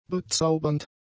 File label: "bezaubernd", German; adjectives